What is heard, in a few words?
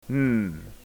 n lowercase, ipa; latin